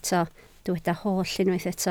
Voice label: "holl", Welsh